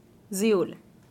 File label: "zuill" tall